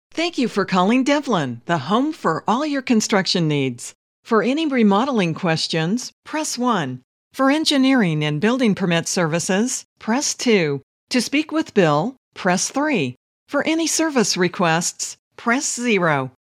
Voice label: Business Professional